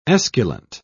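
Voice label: "esculent", British English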